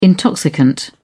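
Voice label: "intoxicant", British English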